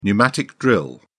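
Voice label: "pneumatic drill", British English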